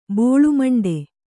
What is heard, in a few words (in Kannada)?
"ಬೋಳುಮಂಡೆ", Kannada